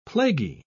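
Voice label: "plaguy", British English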